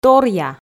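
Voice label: "торъя", Komi, female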